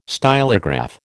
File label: "stylograph", American